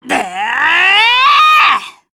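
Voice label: AGREE, Japanese; Minosu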